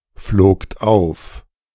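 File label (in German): "flogt auf", German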